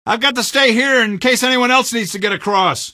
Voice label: plank, male; Garry's Mod; hl2; Streetwar